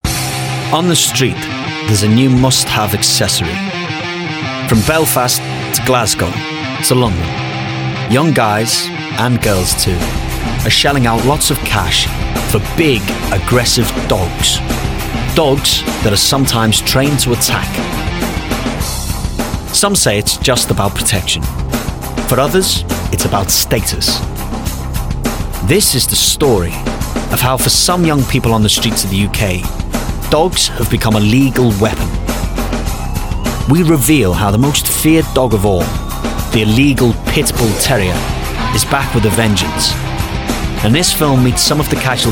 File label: Documentary